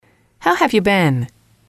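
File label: how have you been, female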